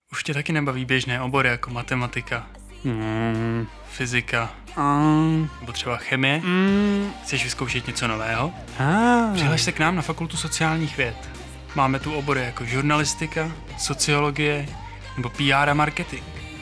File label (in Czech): rozhlas reklama